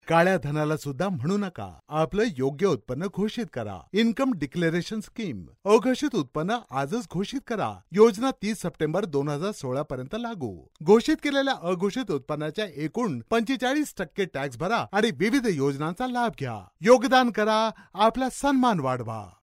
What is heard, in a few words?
MARATHI MALE